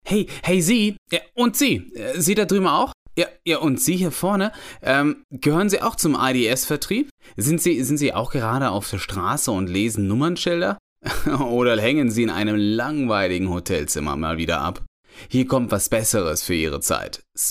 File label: Podcast Intro; Siemens SpareParts Podcast Intro Demo